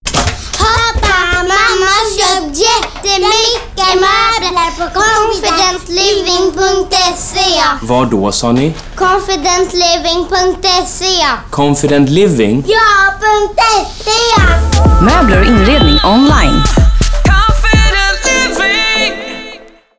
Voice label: Confident living Radio spot